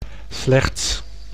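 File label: "slechts", Dutch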